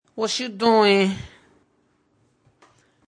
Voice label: Wachoo Doin, Man says slang for "what are you doing?"; Talking